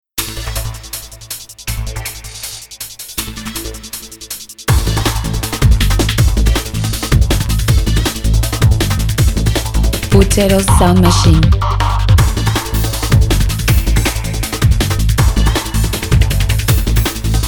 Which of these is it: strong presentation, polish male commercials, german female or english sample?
strong presentation